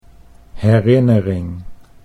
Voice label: "herinnering", Dutch

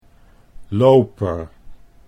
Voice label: "loper", Dutch; chess

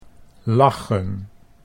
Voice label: "lachen", Dutch

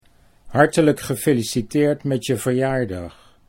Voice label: hartelijk gefeliciteerd met je, Dutch

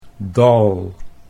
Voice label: "dal", Dutch; 'valley'

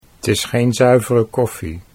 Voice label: 't is geen zuivere koffie snel, Dutch

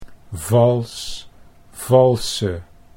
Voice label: "vals valse", Dutch

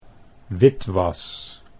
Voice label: "witwas", Dutch

